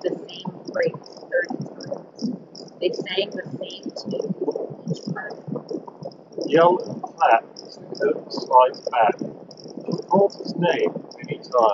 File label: Wind microphone sample; Tozo T10